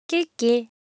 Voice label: Korean Sound, ideophones

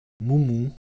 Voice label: Ewe Color Visual, Ideo Phones

